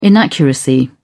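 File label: "inaccuracy", British English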